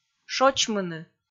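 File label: "шочмыны", Komi, female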